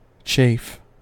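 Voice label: "chafe", US English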